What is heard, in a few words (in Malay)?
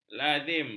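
"lazim", Malay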